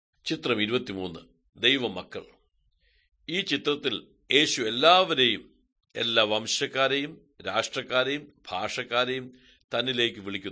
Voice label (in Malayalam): good news malayalam, drama